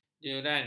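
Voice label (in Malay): "jeran", Malay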